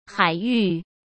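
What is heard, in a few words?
"海域", Mandarin Chinese; hsk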